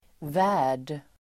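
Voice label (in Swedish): "värld", swedish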